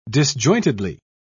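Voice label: "disjointedly", English, British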